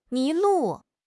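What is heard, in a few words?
"mi2 lu4", Chinese; HSK4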